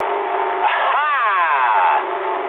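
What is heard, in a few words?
English trick mood med; War Thunder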